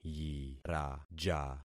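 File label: "yiraja", concatenated syllables; Affixing experiment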